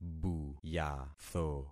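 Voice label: "buyatho", concatenated syllables; Affixing experiment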